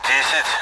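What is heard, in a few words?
Speech Sound Russian Common AWACS Numbers; DCS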